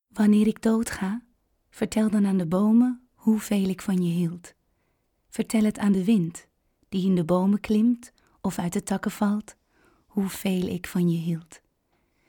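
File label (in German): Niederlande, weiblich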